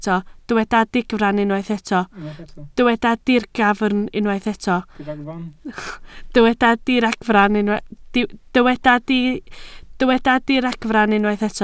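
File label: "diragfarn" Welsh, spoken word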